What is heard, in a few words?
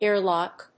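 "air lock", English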